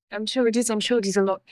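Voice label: Voice Acting es, female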